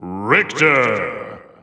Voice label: English Announcer; SSBU